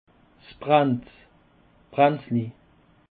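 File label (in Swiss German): "brennt", Alsatian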